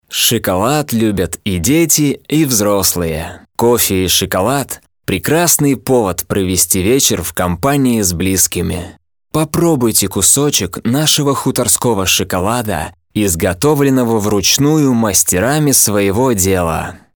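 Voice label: Russian male example Sweet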